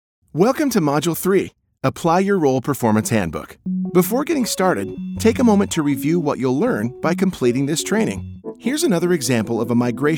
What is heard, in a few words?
eLearning